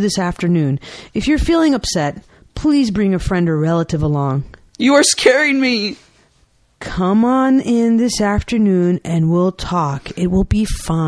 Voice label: Conversation, Discussing Test Results